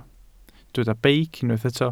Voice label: "beic", Welsh